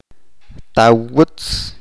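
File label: "Dueño del cerro", Chalchiteko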